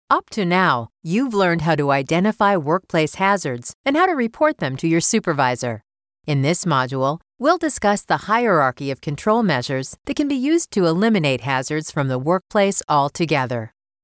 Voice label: eLearning